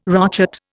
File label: "rochet", Indian